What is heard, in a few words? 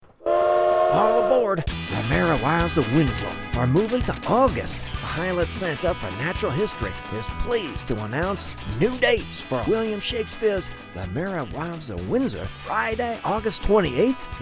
radio commercial; merry wives